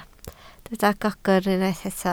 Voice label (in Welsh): "gogr", Welsh